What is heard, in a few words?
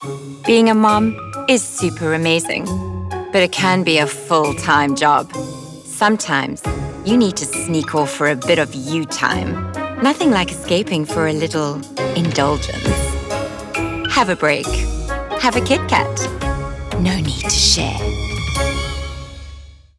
Voice Conversational